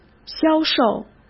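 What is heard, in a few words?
"销售", Mandarin Chinese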